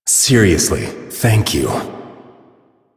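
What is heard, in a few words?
Citizen Male, English; Warframe; Mall; Citizens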